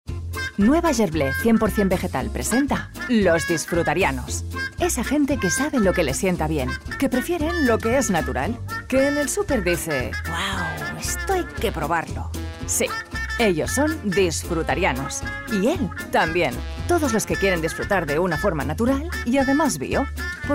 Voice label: COMMERCIAL, Spanish, female